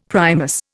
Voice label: "Primus", Indian; Dictionary